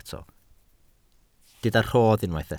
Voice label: "rhodd", welsh